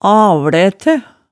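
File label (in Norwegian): "avlæte", Hemsedal dialect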